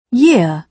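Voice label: "year", British English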